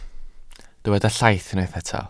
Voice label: "llaith", Welsh

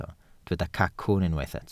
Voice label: "cacwn", Welsh

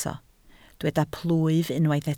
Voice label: "plwyf", Welsh

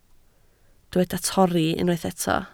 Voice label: "torri", Welsh

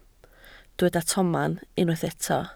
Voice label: "tomen", Welsh

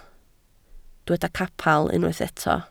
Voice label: "capel", Welsh

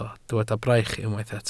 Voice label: "braich", Welsh

